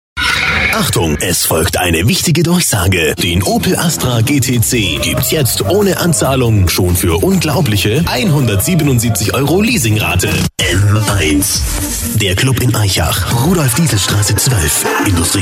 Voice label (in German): Sprecher Showreel